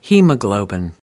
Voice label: "hemoglobin", us